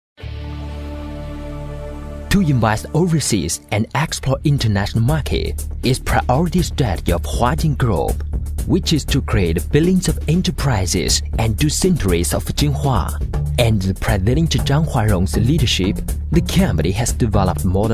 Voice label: 英语男 专题片; 华坚国际鞋城埃塞俄比亚有限公司

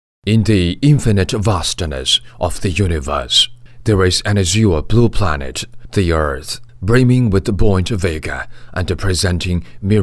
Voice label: 英语男, 稳重大气; 中铁

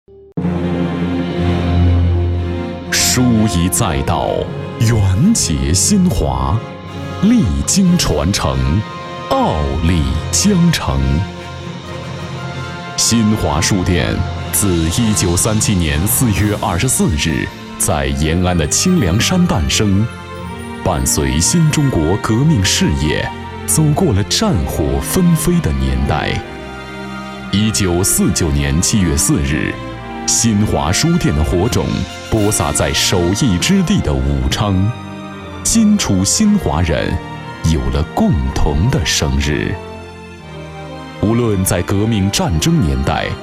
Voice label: 国语男 红色追忆类; 新华书店

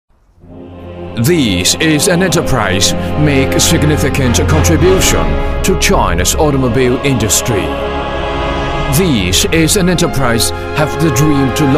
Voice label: 英语男, 专题; 英利

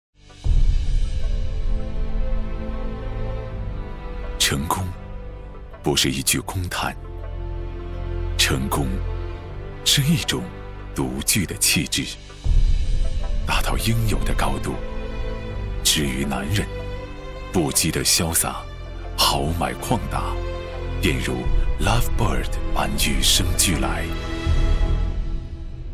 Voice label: 国语男 专题片 产品; Love bird，定义男人应有的高度！

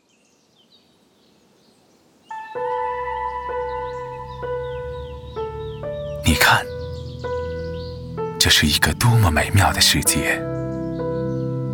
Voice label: 国语男 形象片 科技; 熊猫－看见世界发现未来

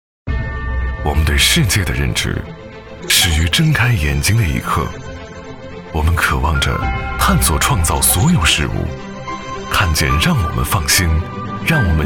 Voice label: 国语男, 科技宣传; 海康威视

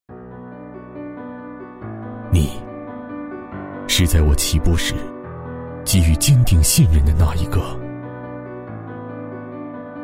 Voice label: 国语男 广告 宣传片; 奔驰三十周年

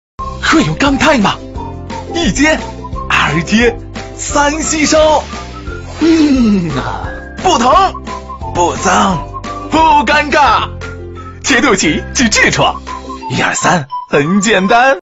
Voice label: 国语男 广告 产品类; 肛泰

